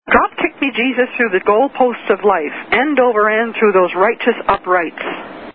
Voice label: [Recited Fragment Of Song]